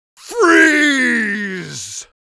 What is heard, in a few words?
freeze, Mage Voice; Mage Voice Pack